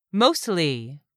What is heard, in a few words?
"mostly", English; 3000 words